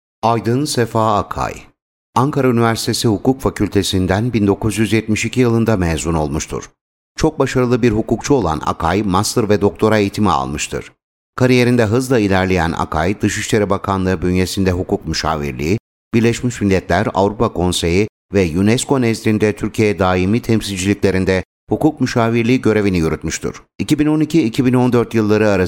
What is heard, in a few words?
epic promotion